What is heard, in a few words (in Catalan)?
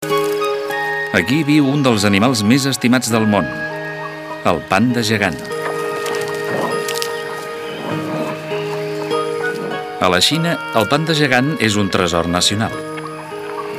doc catala